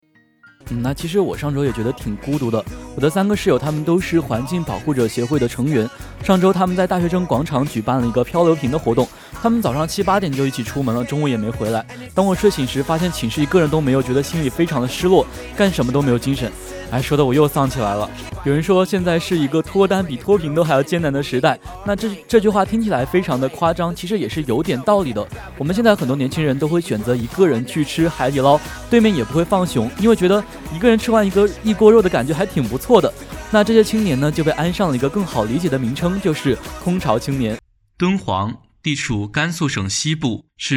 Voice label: 男配 广播节目

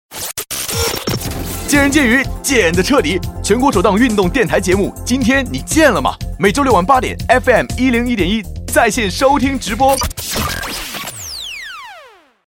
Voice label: 推广 宣

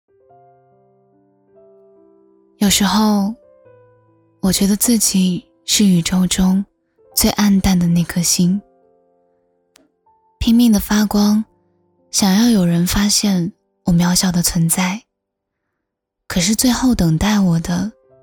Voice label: 旁白

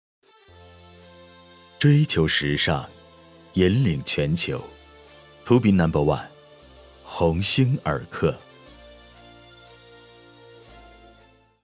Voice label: TVC广告, 青年（18-35）, 真人商业配音; 鸿星尔克

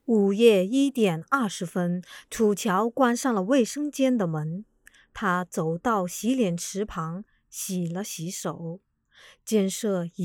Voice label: 有声小说 演播 旁白; 楚乔传

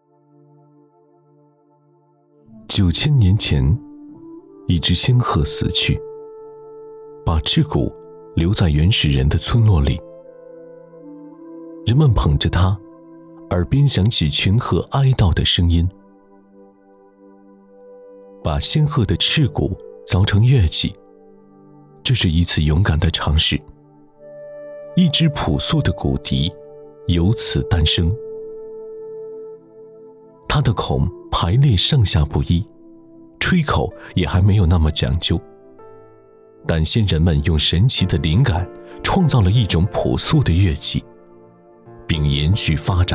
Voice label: 记录 讲述; 记录讲述骨笛

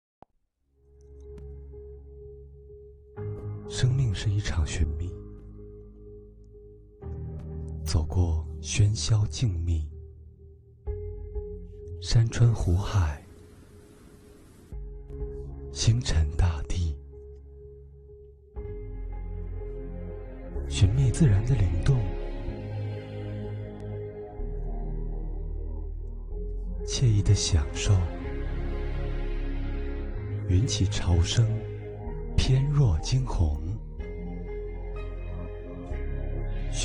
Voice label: 商配磁性, 男配音, 青年, 普通话

